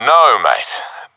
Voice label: negative, professional; vo; Counter Strike Model Pack; CS:GO